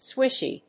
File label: swishy